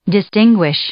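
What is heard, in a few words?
"distinguish", English; verb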